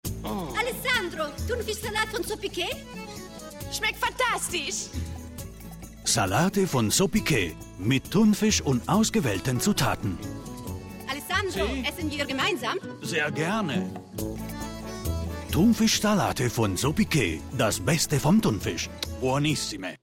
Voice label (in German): TV-Werbung deutsch mit ital Akzent